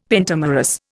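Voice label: "pentamerous", Indian English; Dictionary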